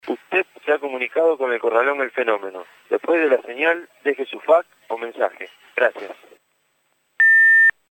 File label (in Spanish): mensajes telefonicos